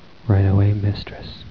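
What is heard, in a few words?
mistress, Male voice, "Right away, Mistress."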